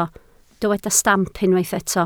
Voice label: "stamp", Welsh